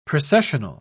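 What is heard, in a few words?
"precessional", British English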